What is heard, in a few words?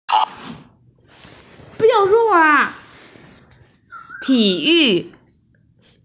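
"tiyu", Mandarin Chinese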